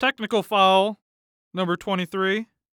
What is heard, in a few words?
Human Voice Male Young Man Basketball Referee Technical Foul Number Twenty Three; Sports